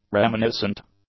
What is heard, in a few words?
"reminiscent", English; adjectives